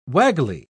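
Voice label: "waggly", British English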